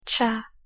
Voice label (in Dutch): "tsa", Ilocano; thee-in-andere-talen